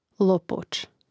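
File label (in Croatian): "lopoc", Croatian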